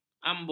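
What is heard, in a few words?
"ambung", Malay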